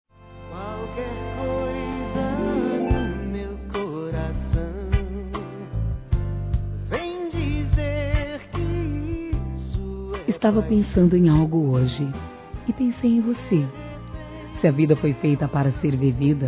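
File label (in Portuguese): ROMANTICA SUAVE, Feminino; Telemensagens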